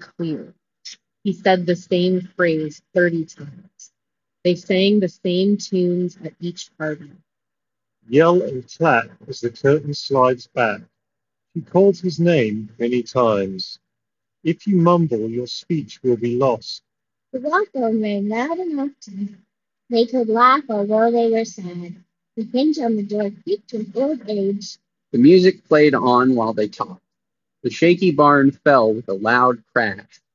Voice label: Sony WF1000 XM5 Street microphone sample